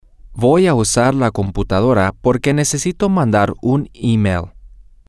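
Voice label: Spanish, male; i Am Going To Use The Computer Because I need To Send An Email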